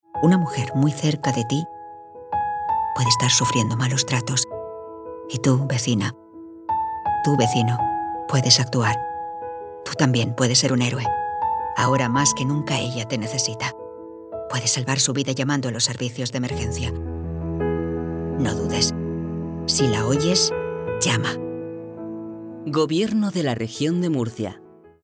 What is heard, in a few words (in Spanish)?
cuña radio